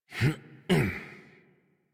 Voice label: Horse Dismount Adult; Warframe; Duviri

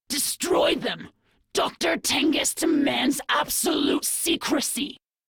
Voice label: Intel Alerted Grineer Fem en; Warframe; Infested Intro Quest

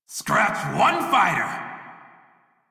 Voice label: Crew Gun Kill Fighter Male en; Dialog; Warframe; Railjack Crew; Red Veil

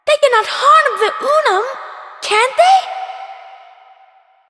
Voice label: Child; Ostron; Warframe; Cetus Invasion; Villagers; en

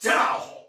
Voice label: Attack, Soldier Male; Sinecure

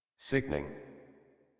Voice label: Happy Adult, en, Dialog; Warframe; Duviri Paradox Quest

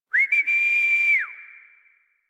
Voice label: Horse Sprint Adult; Warframe; Duviri

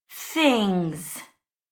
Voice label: Operator Voice; Sacrifice Quest; Mimic Beat

